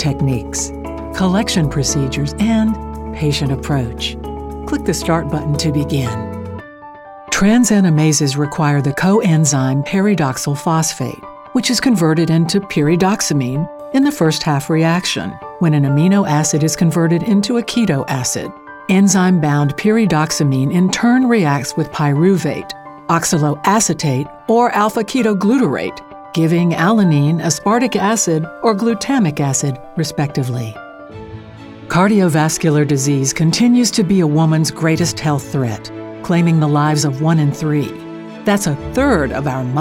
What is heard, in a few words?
Voice Over Medical